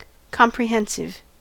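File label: "comprehensive", American English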